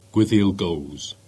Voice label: Cornish; Withielgoose